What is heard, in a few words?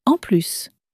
"en plus", French